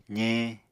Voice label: "zeñ", Apatani